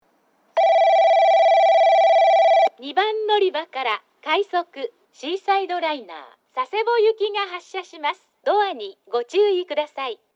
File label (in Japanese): 2番のりば発車放送; 快速シーサイドライナー 佐世保行き; ハウステンボス